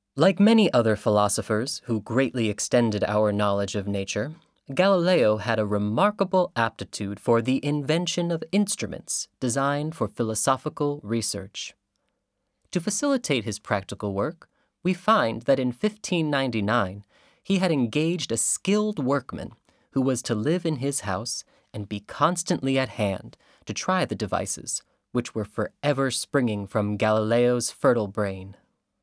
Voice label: English - U.S.A.; VOICES